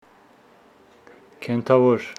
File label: Homshetsi animal